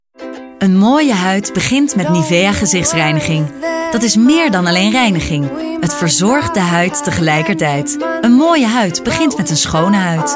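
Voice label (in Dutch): fris, stem voice-over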